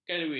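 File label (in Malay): "kelvin", Malay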